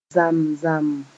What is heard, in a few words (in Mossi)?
"zam-zam", moore